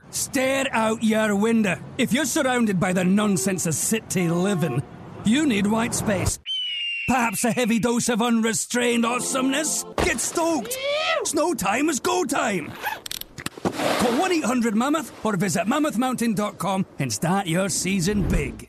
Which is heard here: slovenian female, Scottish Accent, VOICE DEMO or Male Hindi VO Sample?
Scottish Accent